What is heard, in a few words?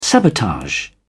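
"sabotage", British English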